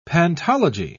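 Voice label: "pantology", British English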